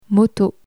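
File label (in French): "moto", French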